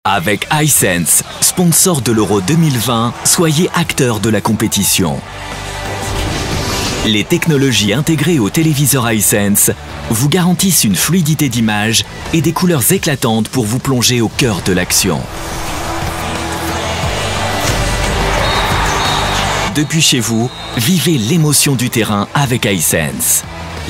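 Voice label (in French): vendeur efficace, Comédien voix off, publicité; Hisense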